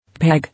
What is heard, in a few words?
"Peg", English